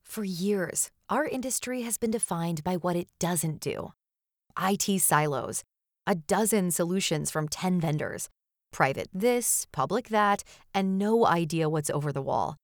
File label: Corporate